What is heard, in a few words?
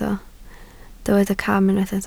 "cam", Welsh